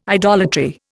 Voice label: "idolatry", Indian

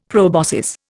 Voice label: "proboscis", Indian; Dictionary

